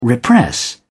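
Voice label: "repress", British English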